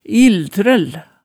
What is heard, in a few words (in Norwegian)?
"illtrøll", hemsedalsmaalet